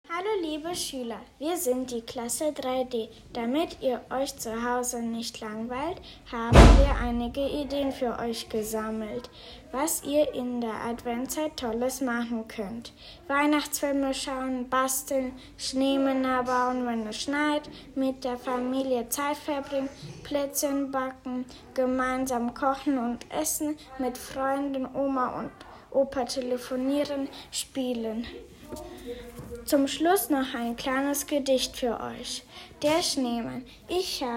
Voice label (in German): Durchsage